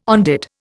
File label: "on dit", Indian